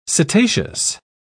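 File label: "cetaceous", British English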